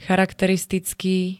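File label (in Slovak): "charakteristický", Slovak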